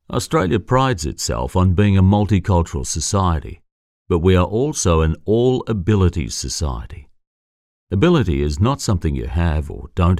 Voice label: English Australian corporate videos

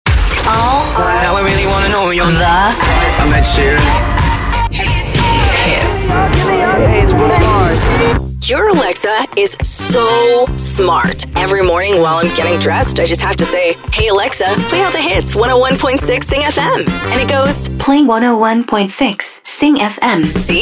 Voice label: english american others

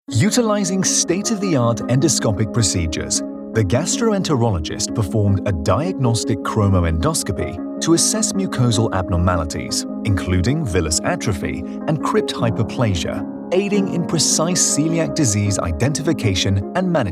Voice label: english british medical narration

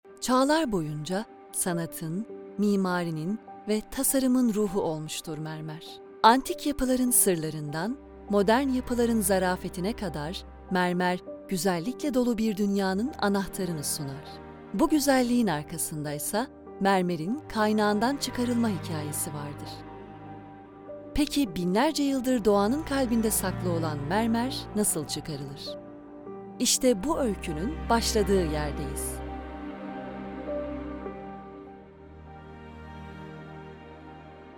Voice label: turkish documentaries

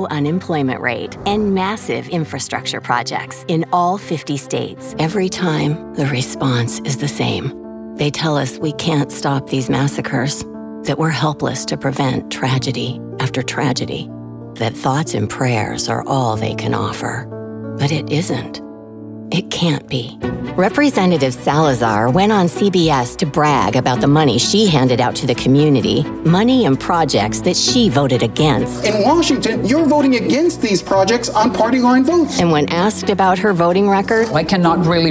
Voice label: english american political ads; Inglés (Estados Unidos)

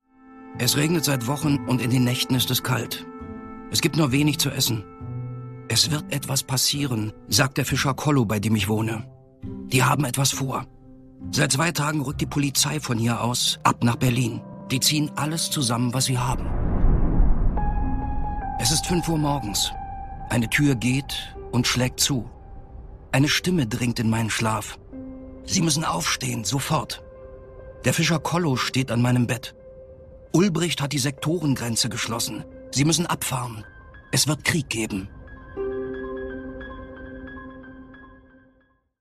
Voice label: german documentaries

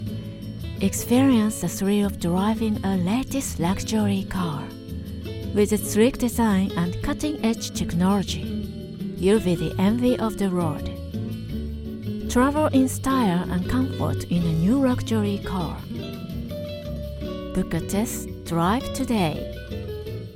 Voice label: japanese automotive

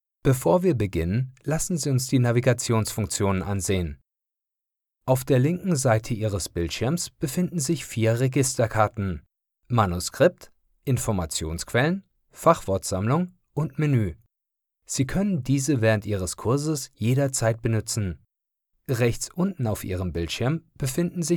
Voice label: German e-learning

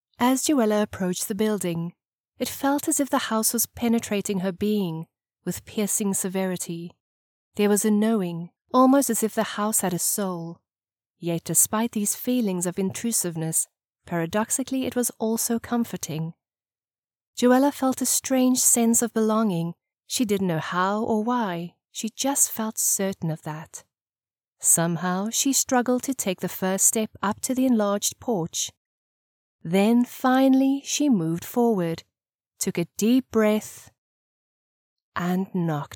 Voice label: english south african audiobooks

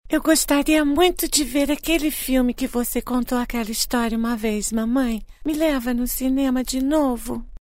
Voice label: portuguese cartoon animation

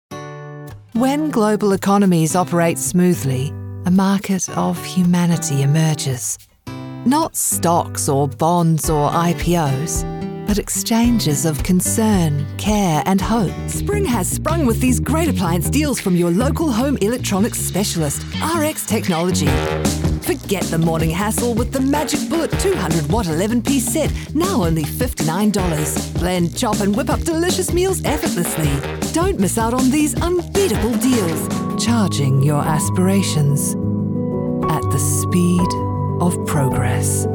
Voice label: english new zealand commercial

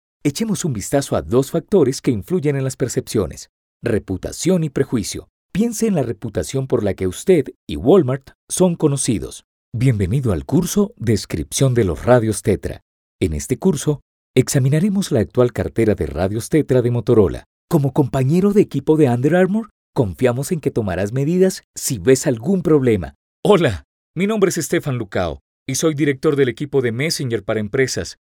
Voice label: spanish latin american e-learning

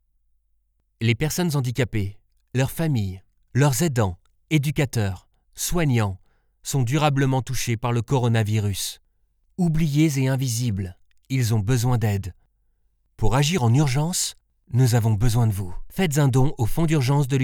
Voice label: french political ads

